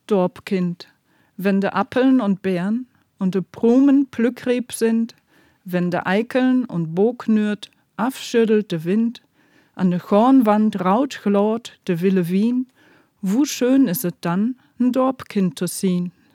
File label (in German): Platt, Plattdeutscher; Kastanienplatz; Plattdeutscher Weg; Nottuln